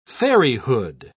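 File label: "fairyhood", British English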